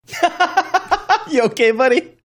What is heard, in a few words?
laughing saying you okay buddy